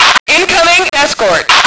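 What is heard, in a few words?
female "escorting"; lmctf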